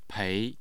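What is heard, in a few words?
"pei2", Mandarin Chinese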